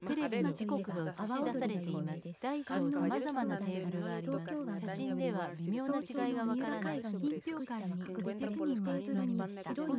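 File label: female instantaneous mix; SiSEC10; underdetermined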